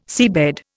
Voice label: "seabed", Indian